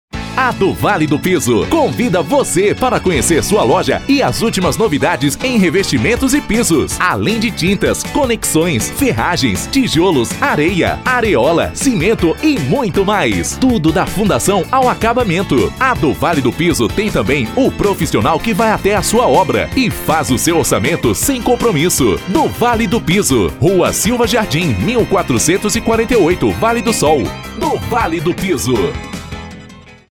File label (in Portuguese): spot